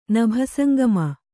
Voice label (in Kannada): "ನಭಸಂಗಮ", Kannada